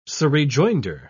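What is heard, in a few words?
"surrejoinder", British English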